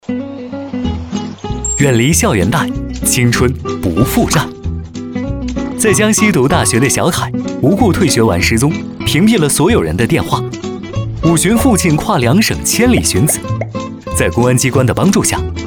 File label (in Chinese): 男 轻松; 校园贷